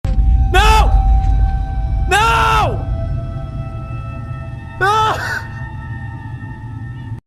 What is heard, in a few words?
nooo sound effect